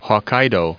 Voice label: "hokkaido", en us